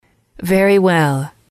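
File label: "very well", English, female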